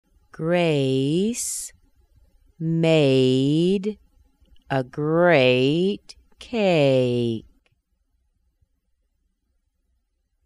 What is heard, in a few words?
ey sentences slow, American accent